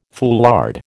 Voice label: "foulard", American